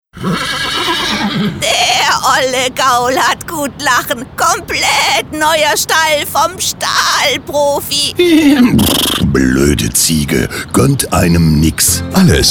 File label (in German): Radio Spot